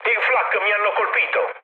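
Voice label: Italian damaged aa mood med, speech; War Thunder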